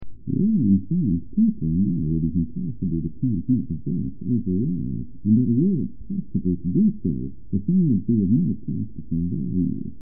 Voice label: Muffled